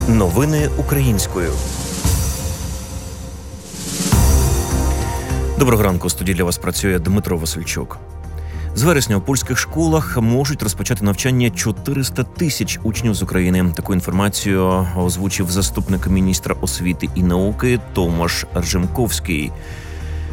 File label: Ukrainian News